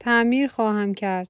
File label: female build, persian